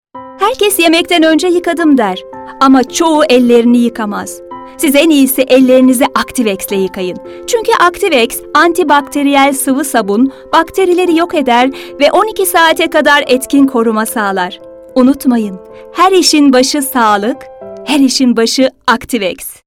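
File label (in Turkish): sicak sakin, seslendirme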